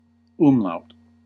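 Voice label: "umlaut", US English; pronunciations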